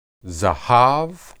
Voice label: "zahab", Hebrew